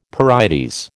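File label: "pyrites", American English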